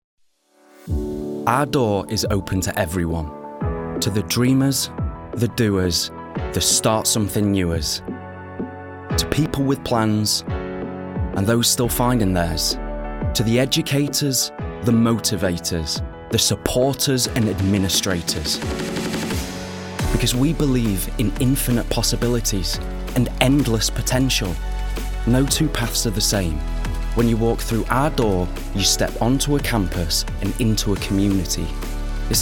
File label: Corporate, regional accents